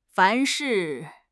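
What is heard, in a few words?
"凡是", Chinese; HSK6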